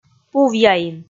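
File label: "пувъяин", Komi, female; kpv audio dict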